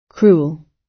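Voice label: "cruel", British English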